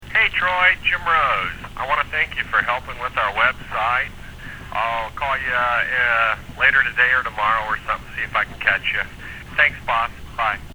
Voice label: testimonial msg